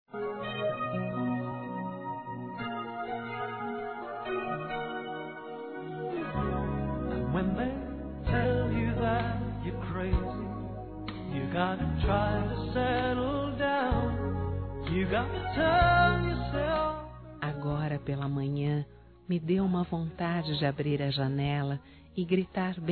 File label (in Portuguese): BOM DIA ROMANTICO-F